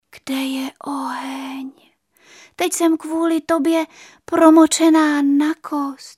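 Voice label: female Czech drama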